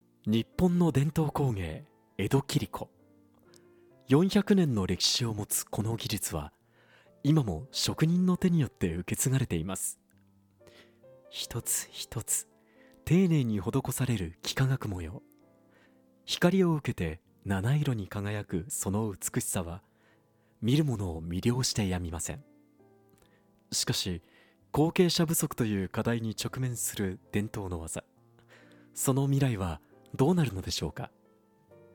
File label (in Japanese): ドキュメンタリー風